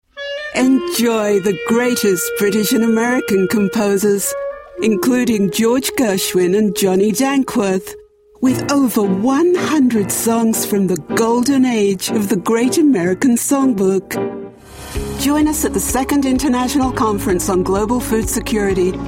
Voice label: Commercial Mixed Accents